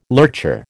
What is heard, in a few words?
"lurcher", American